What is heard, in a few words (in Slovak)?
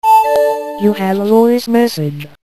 sms hlásky en